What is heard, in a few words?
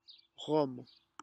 "rhum", phonetics